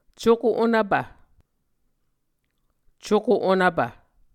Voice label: "cuko onvpv", Muscogee